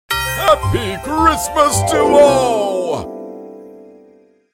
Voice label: Happy christmas to all jingle santa